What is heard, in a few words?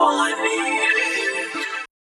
ELECTRO MALE 140 A, Vocals